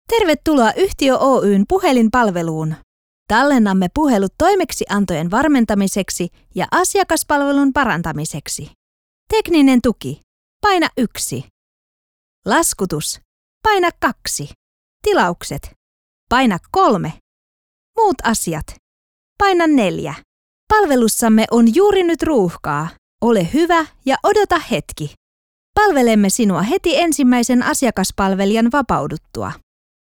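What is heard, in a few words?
Finnish IVR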